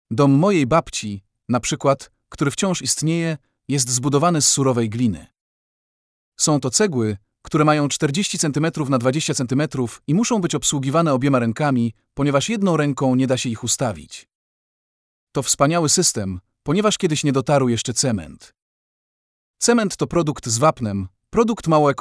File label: POLISH